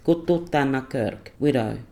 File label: "kuthalthana-kurrk" widow